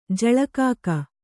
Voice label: "ಜಲಕಾಕ", kannada